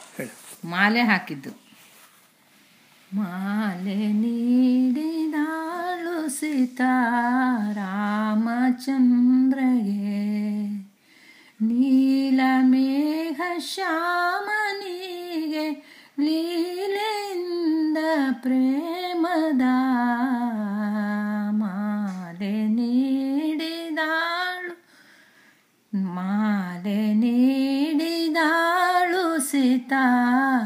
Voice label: Male